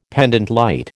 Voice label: "pendente lite", American

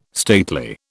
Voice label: "stately", British

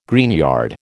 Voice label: "greenyard", American

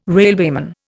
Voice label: "railwayman", Indian

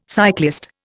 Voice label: "cyclist", Indian

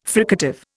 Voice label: "fricative", Indian

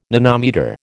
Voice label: "nanometre", American

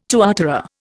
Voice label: "tuatara", Indian

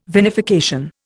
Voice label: "vinification", Indian

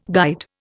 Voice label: "guide", Indian

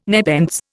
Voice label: "nepenthes", Indian

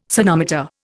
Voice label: "sonometer", Indian

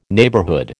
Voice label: "neighbourhood", American

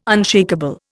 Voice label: "unshakeable", Indian

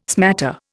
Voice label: "smatter", Indian

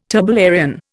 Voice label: "turbellarian", Indian

